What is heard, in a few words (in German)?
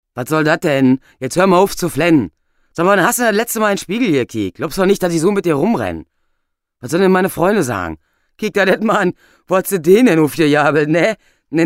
Berlinisch rauh; LOFT VOICES